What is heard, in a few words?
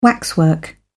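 "waxwork", British English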